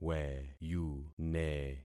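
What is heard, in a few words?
"weyune", concatenated syllables; Affixing experiment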